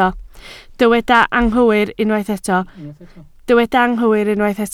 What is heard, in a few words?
"anghywir", Welsh